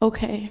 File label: sad